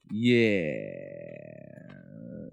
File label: "yeah", long